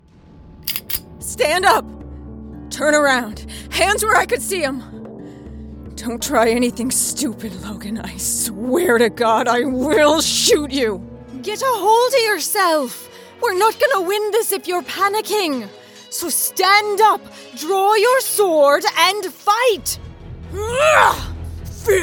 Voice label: Gaming, Female Voice Over

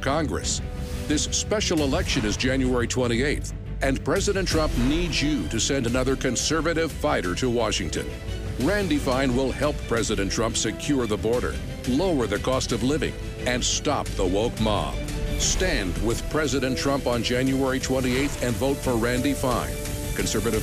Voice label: Republican, Political GOP Voices English MALE

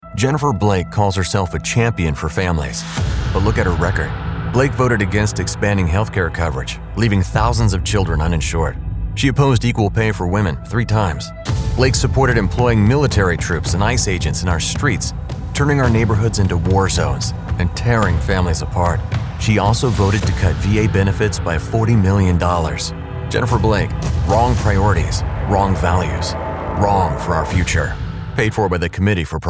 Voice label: Political DEMOCRAT Voices English MALE

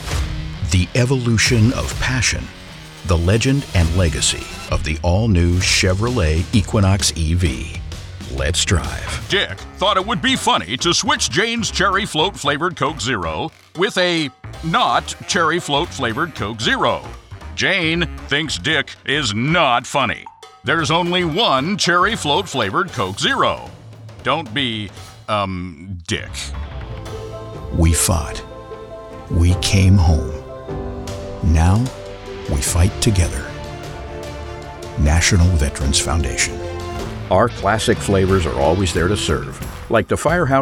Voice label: Commercial Voice Over

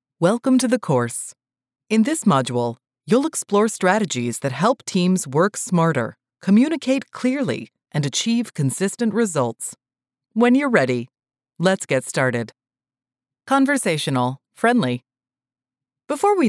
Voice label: eLearning, Female Voice Over

